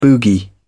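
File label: "boogie", British English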